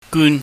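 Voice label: "gun", Cantonese, mid tone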